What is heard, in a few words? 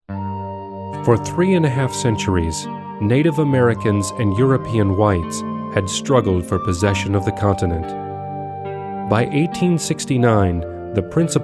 Documentary, voiceovers